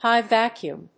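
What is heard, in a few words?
"High Vacuum", English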